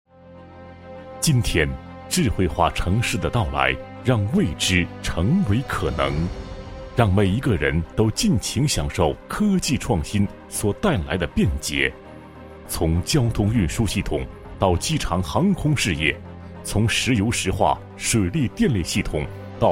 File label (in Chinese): 男 专题 宣传简介, 国语男声配音; 磁性; 凯瑞动力